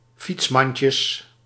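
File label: "fietsmandjes", Dutch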